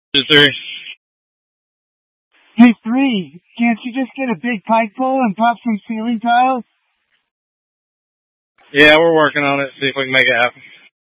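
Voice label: Incident Tac 1, Scanner audio recording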